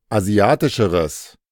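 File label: "asiatischeres", German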